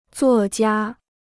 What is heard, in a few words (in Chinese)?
"作家", Chinese